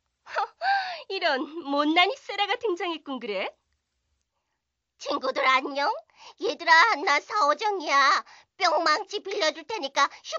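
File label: Korean, female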